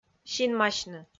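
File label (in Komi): "синмасьны", Komi, female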